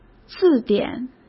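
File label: "字典", Mandarin Chinese; hsk; chinese-flashcards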